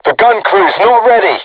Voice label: voice message art reloading, crew tanks vietnamese